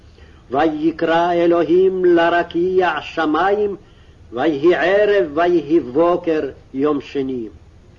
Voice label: Genesis Reading Practice, Aramaic